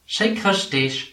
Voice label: merry christmas, luxembourgish

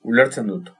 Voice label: "understand", Basque

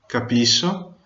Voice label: understand, venetian

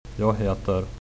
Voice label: name, swedish; Jag heter ...

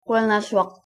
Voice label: thank you, greenlandic

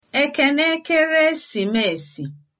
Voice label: christmas, igbo

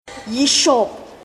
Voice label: bon appetit, Klingon

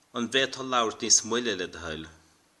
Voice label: "slowly", Irish

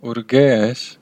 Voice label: where from, armenian western

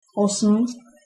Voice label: "eight", czech

